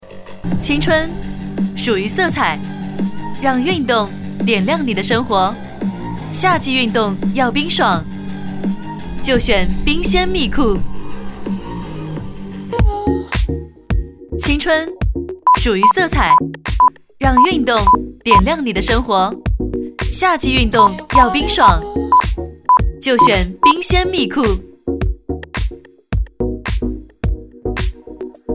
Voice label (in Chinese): 广告炫酷潮流年轻